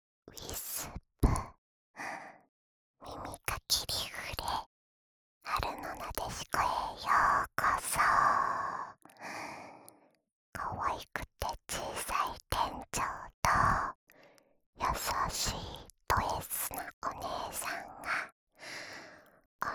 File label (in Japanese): タイトルコール, ASMR